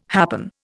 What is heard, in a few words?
"happen", Indian